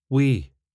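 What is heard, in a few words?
"wee", Scottish English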